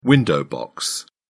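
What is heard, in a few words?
"window box", British English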